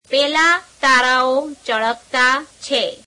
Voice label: gujarati, those stars are shiny; પેલા તારાઓ ચળકતા છે [peeleā teāreāo cheḷeketeā chhe ]